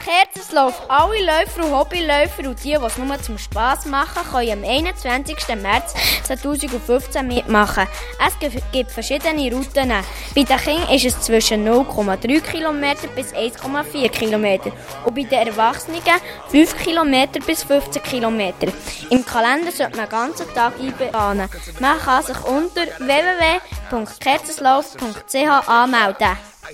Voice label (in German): werbung Kerzerslauf